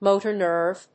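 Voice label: "motor nerve", English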